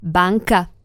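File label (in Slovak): "banka", Slovak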